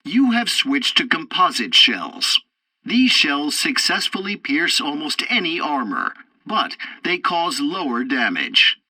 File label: tutorial tank arcade fourth target shells, english; War Thunder